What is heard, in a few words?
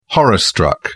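"horror-struck", British English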